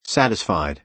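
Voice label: "satisfied", American English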